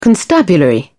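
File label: "constabulary", English, British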